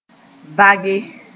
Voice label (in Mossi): "vage", moore